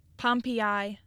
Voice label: "Pompeii", Michigan pronunciation